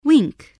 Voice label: "wink", spelling

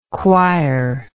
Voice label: "choirlike", English

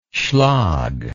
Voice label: "schlag", English

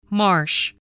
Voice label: "marsh", voice

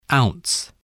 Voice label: "ounce", English voice

